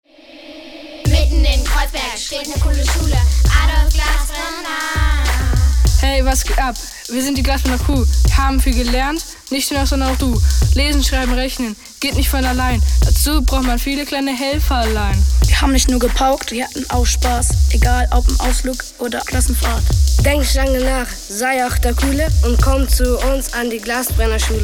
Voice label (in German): rap; adolf glassbrenner